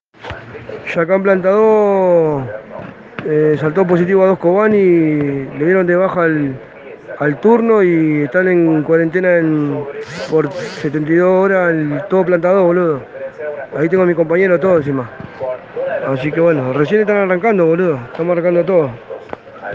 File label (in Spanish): audio preso; los presos reclaman presencia de los medios